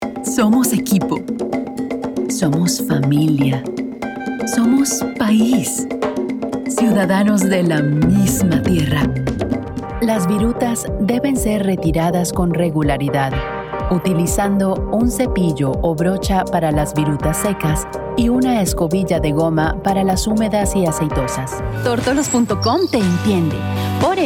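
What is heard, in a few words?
Spanish Narration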